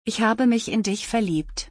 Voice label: I fell in love with you in German